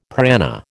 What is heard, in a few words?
"prana", American